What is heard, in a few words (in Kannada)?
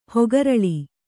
"ಹಕ್ಕೆರಲು", Kannada